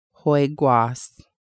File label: "fiance", Lushootseed